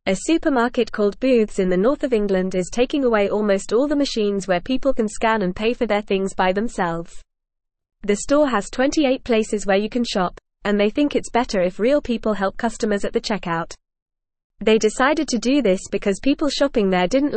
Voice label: English Lower Intermediate FAST Reading; Booths Store Removes Self Pay Machines Wants Happy